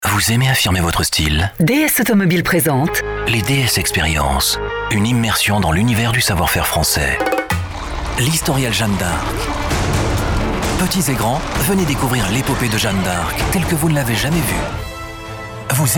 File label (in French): Voix Off Publicité Medley Campagnes Nationales, TV/Radio; Sélection des meilleures campagnes nationales et locales